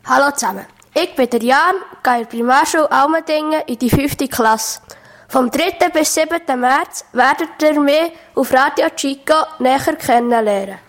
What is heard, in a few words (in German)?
Ankündigungsjingle; Allmendingen